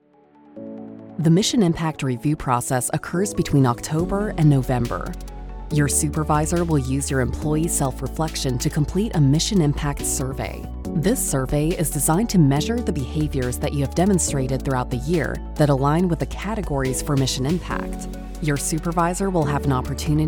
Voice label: english american e-learning